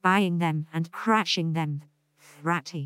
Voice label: CREAKY